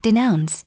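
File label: "denounce", English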